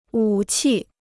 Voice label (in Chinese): "武器", Chinese